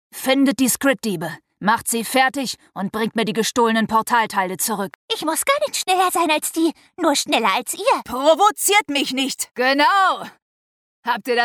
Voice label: game mix german; Sprecher in Berlin, Bremen, Hamburg, Hannover, Kiel, Lübeck, Lüneburg

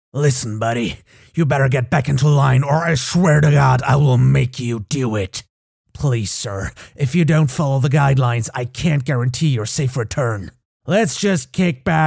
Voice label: gritty american soldier

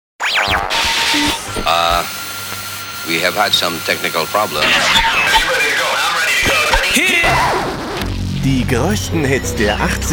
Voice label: station voice FM jingle; Muttersprache Deutsch; Sprecher in Berlin

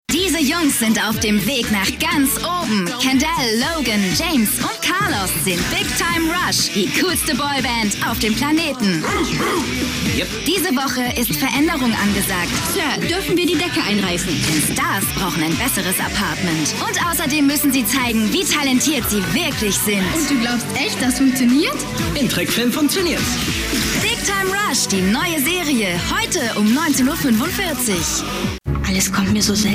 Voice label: trailer; nickelodeon

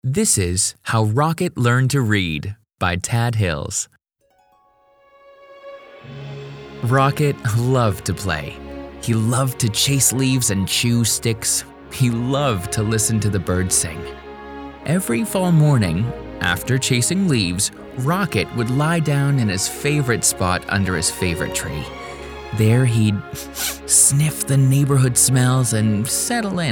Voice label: audiobook; how rocket learned read; Sprecher in Stuttgart; Muttersprache Englisch, Englisch/US-Amerikanisch

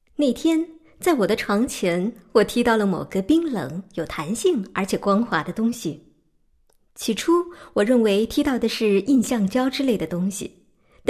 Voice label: story

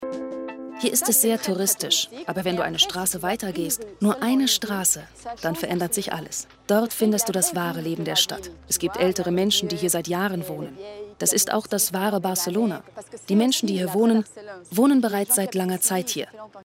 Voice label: documentary Barcelona German; Muttersprache Deutsch

